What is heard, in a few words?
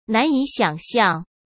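"难以想象", Mandarin Chinese; hsk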